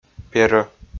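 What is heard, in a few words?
"перӧ", Komi, male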